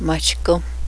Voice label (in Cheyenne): raccoon, Cheyenne; matšėškōme